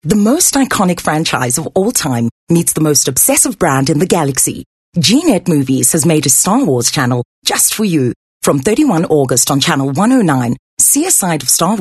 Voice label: English; Skill Promo